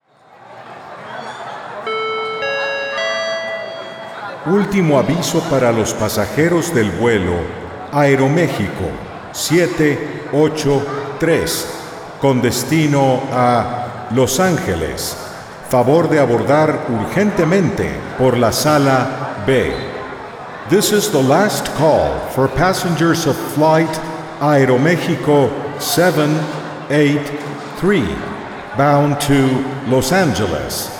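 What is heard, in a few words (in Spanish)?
MEGAFONÍA AEROPUERTO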